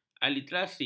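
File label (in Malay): "aliterasi", Malay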